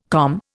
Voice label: "COM", Indian

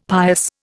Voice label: "pious", Indian; Dictionary

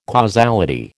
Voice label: "causality", American

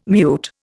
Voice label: "mute", Indian

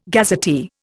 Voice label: "gazetteer", Indian

